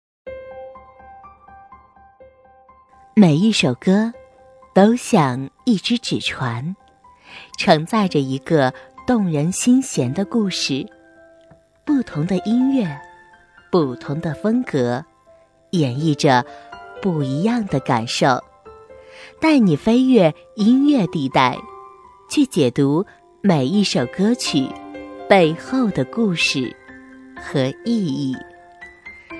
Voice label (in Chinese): 女 音乐电台